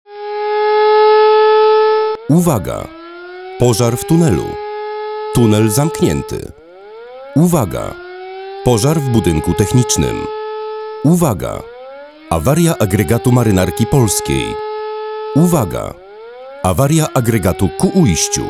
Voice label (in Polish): komunikaty alarmowe